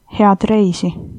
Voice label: bon voyage, estonian